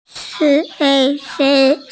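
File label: "shei2", Mandarin Chinese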